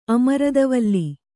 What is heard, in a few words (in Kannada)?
"ಅಮೃತವಲ್ಲಿ", Kannada